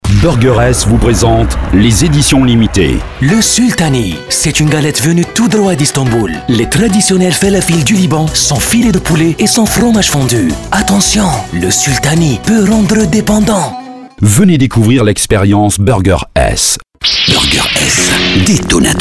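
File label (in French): spot, spots radio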